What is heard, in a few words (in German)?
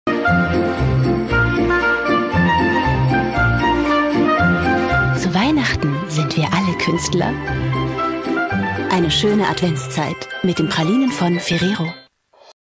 Werbung Weihnachten; Ferrero